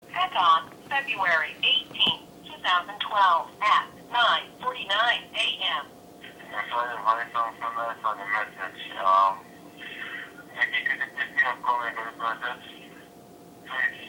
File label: begging